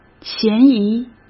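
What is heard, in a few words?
"嫌疑", Mandarin Chinese; hsk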